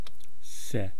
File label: "se", East Cree syllabics